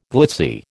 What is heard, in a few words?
"glitzy", American